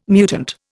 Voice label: "mutant", Indian